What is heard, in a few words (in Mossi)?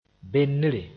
"bendre", moore